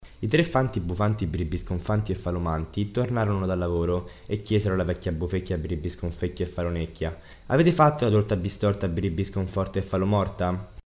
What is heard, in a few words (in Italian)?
difficoltà di articolazione